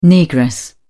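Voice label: "negress", British English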